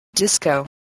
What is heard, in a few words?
"disco", english spelling